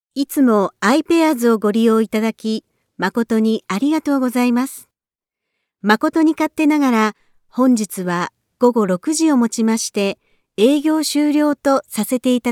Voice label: jp normal; 日本語; ナレーターランクⅢ